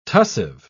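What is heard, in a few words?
"tussive", English, British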